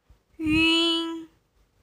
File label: "ün", Pinyin final